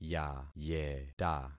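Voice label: "yayeta", concatenated syllables; Affixing experiment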